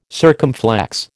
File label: "circumflex", American